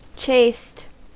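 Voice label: "chaste", US English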